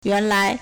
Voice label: "yuan2lai2", Chinese